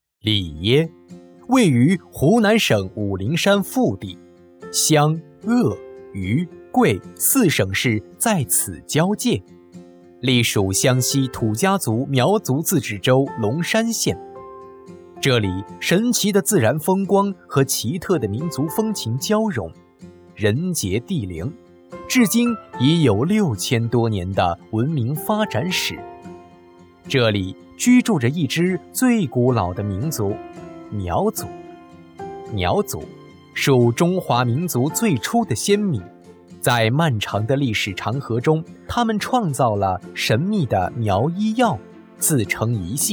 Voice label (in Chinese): 宣传配音稿; 里耶